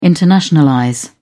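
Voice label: "internationalize", British English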